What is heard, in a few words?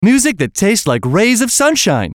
VOICE COMICAL; Radio Voice; Blastwave